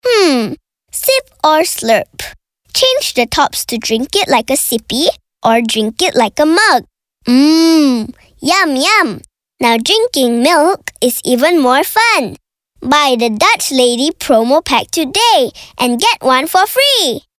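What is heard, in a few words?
English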